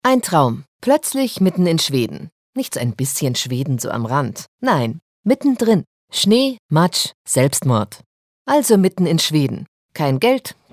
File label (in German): Schauspiel Dynamisch